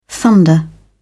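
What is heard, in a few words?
"thunder", British English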